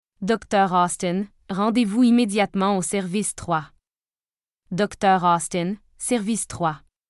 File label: doctor Paging, voice announcement